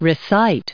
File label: "recite", en us